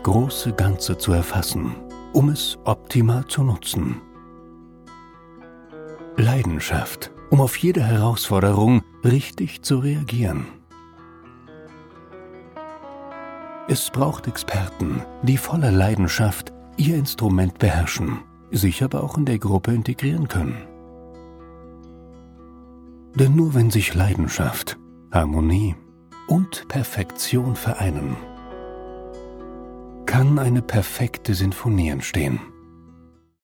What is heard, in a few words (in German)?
imagefilm komposition